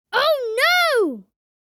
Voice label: Oh no boy child kid

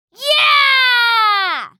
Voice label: "Yeah" excited, girl child kid

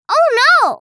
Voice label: "Oh no", girl child kid

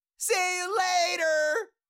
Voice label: Cartoon little monster voice; see you later

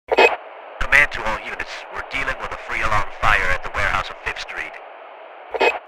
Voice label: Firefighter radio chatter command to all units

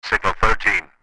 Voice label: Police radio chatter signal

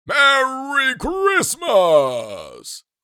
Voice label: Merry christmas santa claus voice

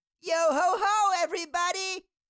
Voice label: Cartoon little child voice; yohoho everybody

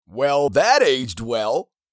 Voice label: Well that aged well meme voice male; social media

